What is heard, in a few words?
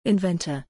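"inventor", English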